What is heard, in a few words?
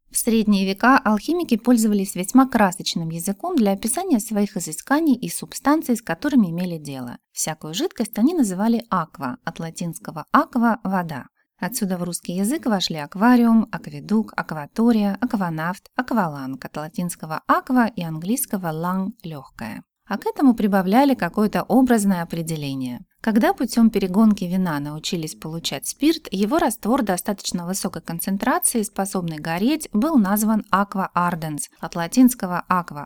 Voice Rus